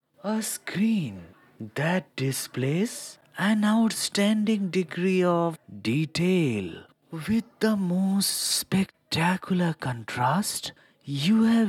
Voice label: television commercial